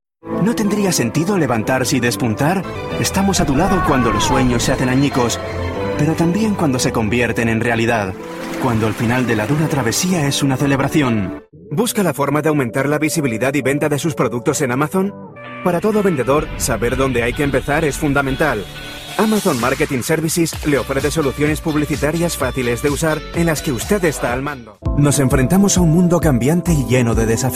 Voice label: M, SP SP

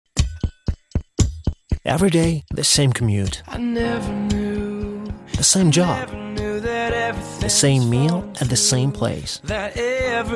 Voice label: M, IT IT, acc eng

